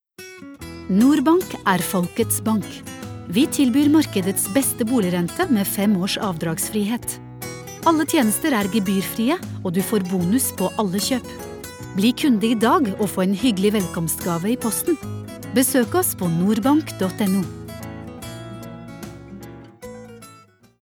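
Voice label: Norwegian commercial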